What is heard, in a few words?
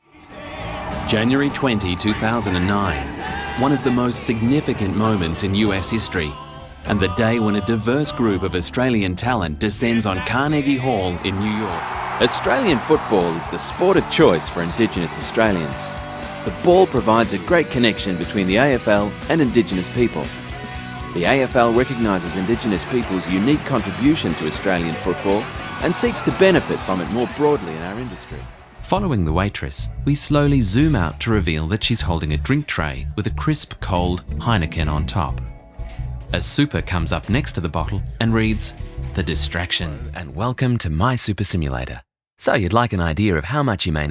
corporate; EM Voices